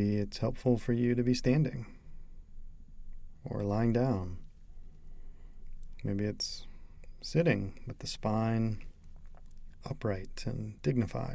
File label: bells 10 min body scan